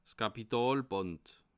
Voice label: "kapitalband", alsace

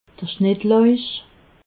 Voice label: "schnittlauch", alsace

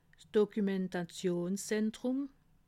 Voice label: "dokumentationszentrum", alsace

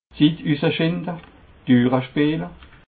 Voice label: "zitt", alsace

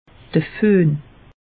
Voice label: "fohn", alsace; meteo

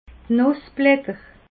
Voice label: "nussblatter", alsace

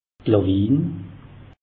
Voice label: "lawiin", alsace; meteo

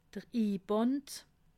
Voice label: "inband", alsace

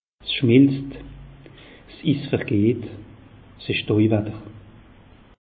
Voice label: "schmeltz", alsace; meteo

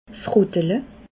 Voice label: "rottele", alsace

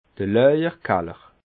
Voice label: "laawerkaller", alsace

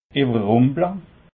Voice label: "ewerrumple", alsace

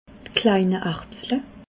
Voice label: "kleine erbsle", alsace

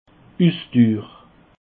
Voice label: "ussdur", alsace

